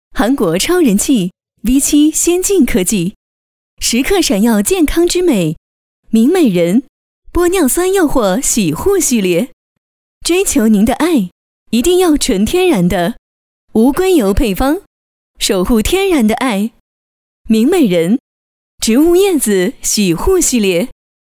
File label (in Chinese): 女 广告 洗发水